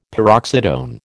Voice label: "paroxytone", American

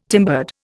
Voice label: "timbered", Indian

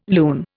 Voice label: "lune", Indian

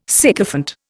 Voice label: "sycophant", Indian

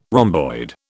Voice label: "rhomboid", British

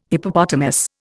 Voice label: "Hippopotamus", Indian

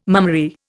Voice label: "mummery", Indian

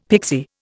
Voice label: "pixie", Indian; Dictionary

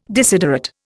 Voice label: "desiderate", Indian

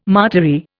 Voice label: "martyry", Indian

